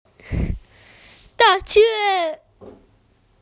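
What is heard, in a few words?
"da4yue1", Mandarin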